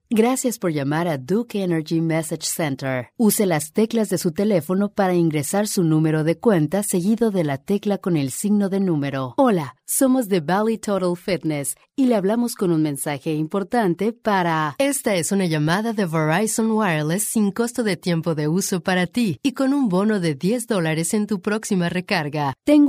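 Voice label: Spanish Latin America female narrative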